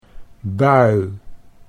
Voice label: "bui", Dutch